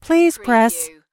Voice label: US female phone prompt Please press; lynne publishing